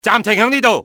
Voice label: hold here, cantonese, male; XBOX; enemy